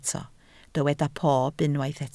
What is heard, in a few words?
"pob", Welsh